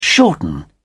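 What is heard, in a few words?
"shorten", British English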